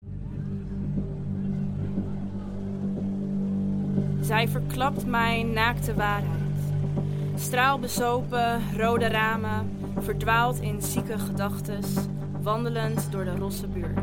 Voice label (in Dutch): poetry circle spoken word